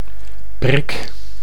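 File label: "brik", Dutch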